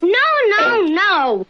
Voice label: no no no girl; Voice; expletives

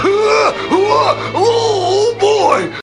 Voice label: "hargh hargh hurr oh boy", voice

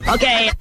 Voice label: okay cartoon

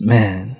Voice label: "man", g-rated swears; Voice; expletives

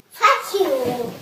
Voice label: "fuck you", toddler; Voice; expletives

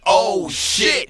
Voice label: oh shit, Voice expletives

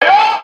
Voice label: "yo", shouting guy; ooh ahh

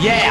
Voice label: "yeah" scratch

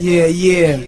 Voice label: "yeah yeah", voice

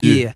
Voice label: "yeah", group of men

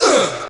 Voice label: "uh", male

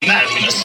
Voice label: madness male

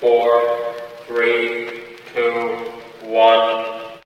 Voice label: "1 2 3" robot; numbers and letters

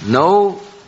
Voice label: "no" questioning; Voice; expletives; dubya

